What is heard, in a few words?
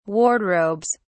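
"wardrobes", English, British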